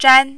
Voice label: "zhan1", mandarin